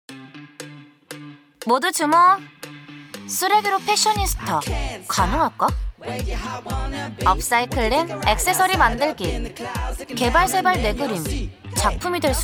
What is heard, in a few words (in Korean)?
밝은 세련된 자연스러운